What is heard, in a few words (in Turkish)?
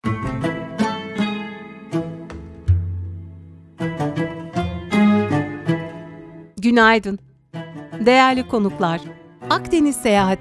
otobus ici anons seslendirme; dinlenme tesisi anons seslendirme; akdeniz seyahat